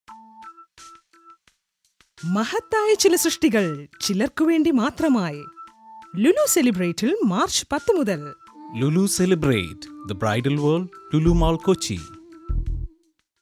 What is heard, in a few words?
promo malayalam; lulu